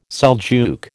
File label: "Seljuk", American